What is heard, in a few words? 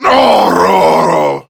Death Prime Grineer Male; Tau; Battle Barks